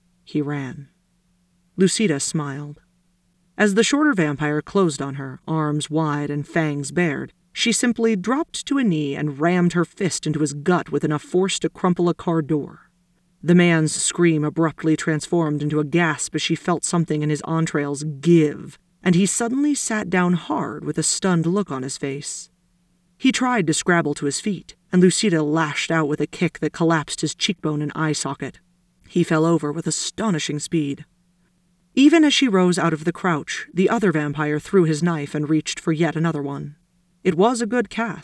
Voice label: Narrated Fight Scene